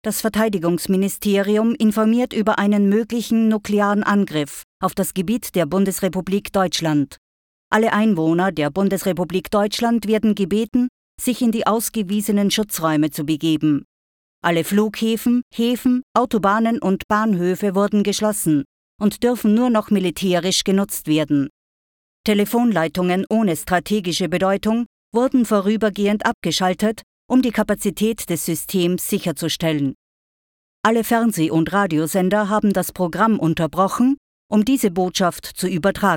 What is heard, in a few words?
german austrian video games